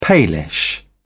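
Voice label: "palish", British English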